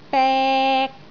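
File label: "bek3", Cantonese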